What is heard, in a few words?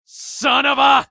battle cry; eft; usec